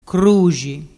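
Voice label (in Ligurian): "cruxi", Ligurian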